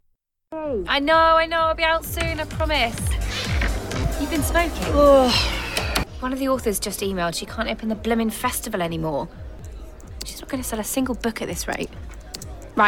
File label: Audio Drama Sample